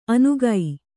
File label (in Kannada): "ಅನುಗೈ", Kannada